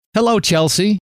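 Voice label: "hello", male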